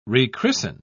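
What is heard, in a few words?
"rechristen", British English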